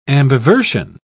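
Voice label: "ambiversion", English, British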